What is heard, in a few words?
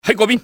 over there, enemy cantonese male; XBOX